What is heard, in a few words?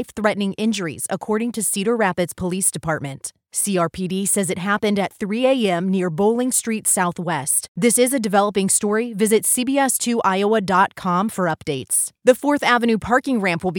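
NEWS ANCHOR, Female Voice Over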